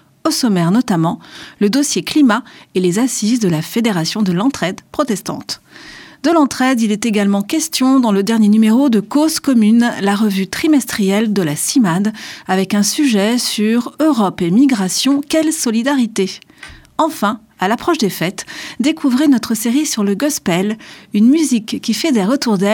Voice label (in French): Spot radio; Regards protestants